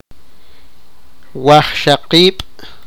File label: eight, sipakapense